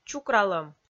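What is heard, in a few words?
"чукралӧм", Komi-Zyrian, female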